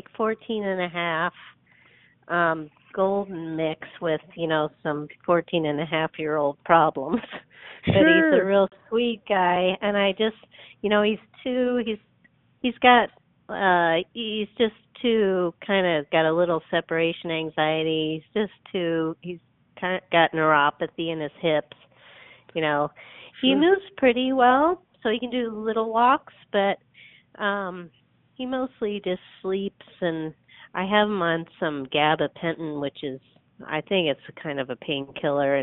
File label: Dog Sitting Sales Call Example